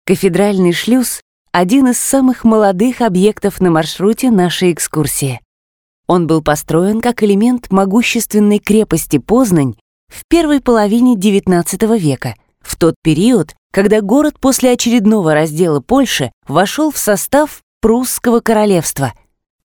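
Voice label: gid, Russian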